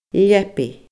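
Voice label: "leabaidh", Scottish Gaelic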